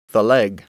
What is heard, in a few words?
"leg", English